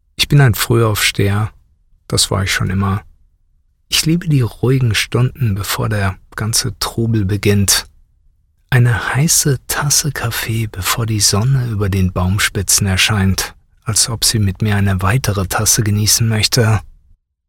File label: Radio Commercial Calm And Warm